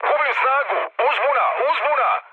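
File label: Serbian damaged engine mood med, speech; War Thunder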